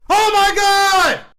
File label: oh my god, gamer